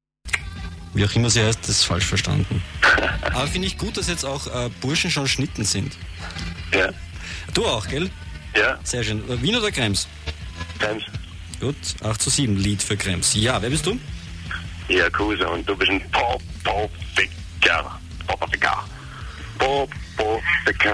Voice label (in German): telephongespraech